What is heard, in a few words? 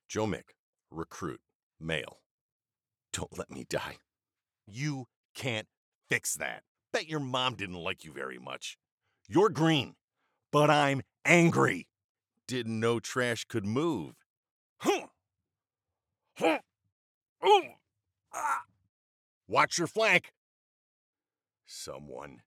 Recruit Male